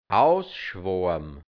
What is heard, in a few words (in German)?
"ausschwoabm", hianzisch, Dialekt, Burgenland; ausschwemmen; auswaschen